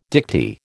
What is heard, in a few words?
"dicty", American